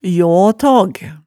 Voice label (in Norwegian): "ljaataag", hemsedalsmaalet tale